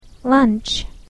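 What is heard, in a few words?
"lunch", English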